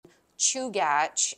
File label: "Chugach", Alutiiq, Prince William Sound region; (CHOO-gatch); Chugach Alaska Corp.